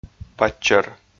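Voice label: "паччӧр", Komi, male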